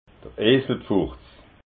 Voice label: "eselpfurtz", alsace

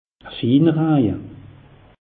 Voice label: "finnerraje", alsace; meteo

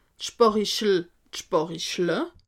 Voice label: "sparichel", alsace

